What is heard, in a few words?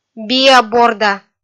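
"биа-борда", Komi, female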